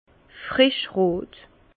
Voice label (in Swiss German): "frischrot", Bas Rhin; vin; rouge vif